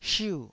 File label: "shiu4", taiwanese